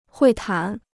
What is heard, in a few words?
"会谈", Chinese